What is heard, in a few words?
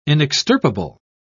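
"inextirpable", British English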